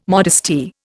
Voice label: "modesty", Indian